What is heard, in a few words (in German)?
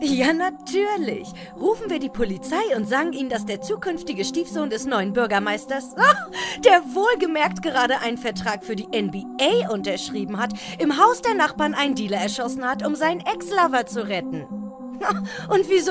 Voice Over Animations Serie; Grandview